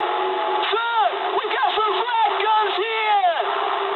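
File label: damaged anti-aircraft mood high, speech English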